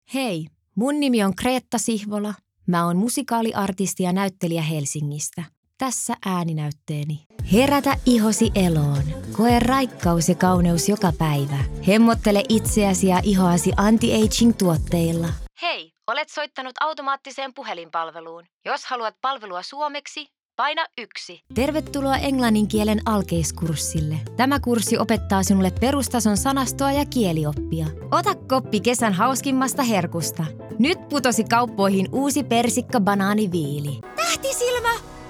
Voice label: Voice Demo, Voice color: neutral, bright, Languages: finnish, engish (general); Ääninäyte